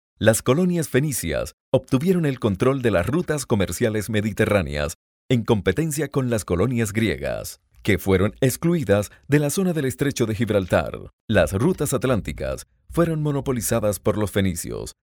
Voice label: locutor colombiano colombian voiceover

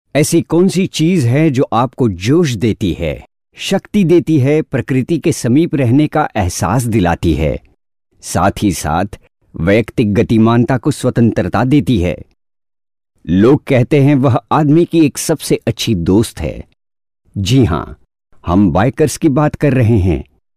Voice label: hindi voiceover locutor indio

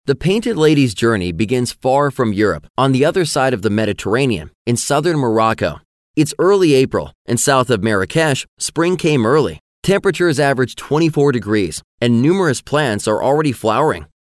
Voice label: locutor estados unidos american english voiceover; Canadian English voice over

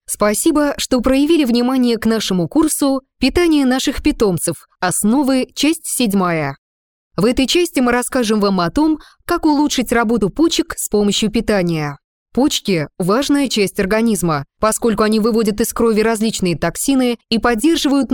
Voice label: russian voiceover locutora rusa

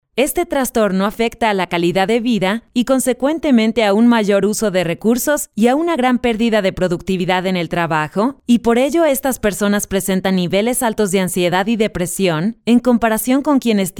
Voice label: locutora mexicana mexican voiceover; locutora de Méjico. Locución de vídeo tutorial para web de medicina

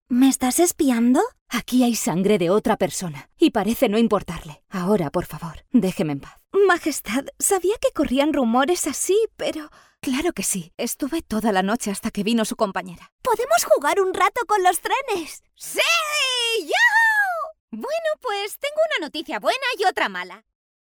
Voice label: locutora spanish voiceover

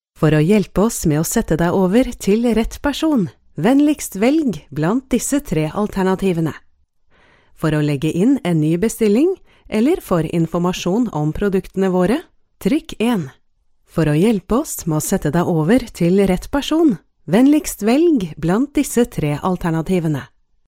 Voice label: locutora noruega norwegian voiceover

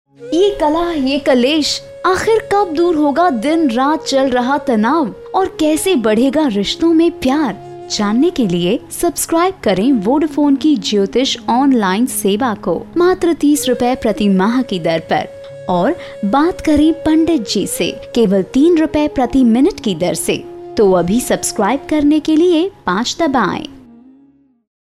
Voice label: hindi female voice; locutora hindú

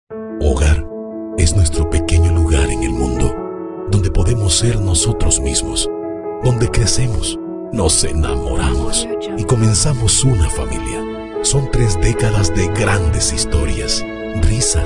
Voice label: locutor panama panamanian voiceover

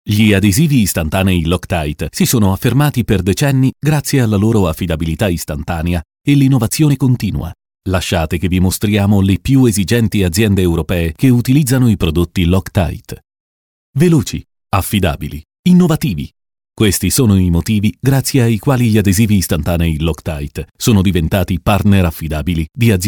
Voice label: Italian voiceover, locutor italiano

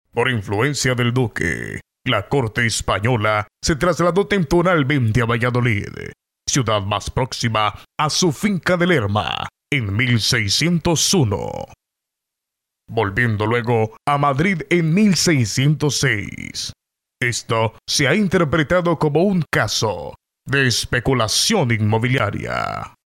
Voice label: locutor honduras honduran voiceover

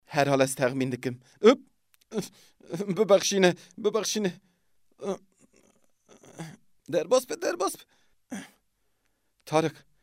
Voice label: kurdish voiceover, Kurdish male voice over

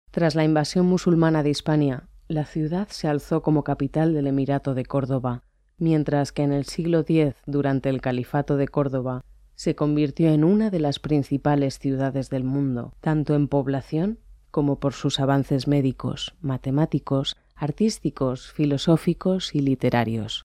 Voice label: locutora spanish voiceover; locutora profesional

